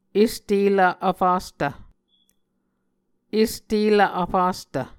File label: "est elv vfastv", Muscogee